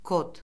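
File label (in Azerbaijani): "kod", Azerbaijani; Orfoqrafiya Lüğəti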